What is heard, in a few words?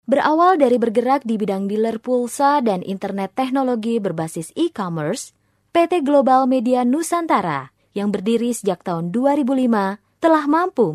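Indonesian narration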